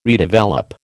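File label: "redevelop", American English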